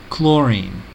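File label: "chlorine", English, US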